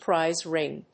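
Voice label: "prize ring", English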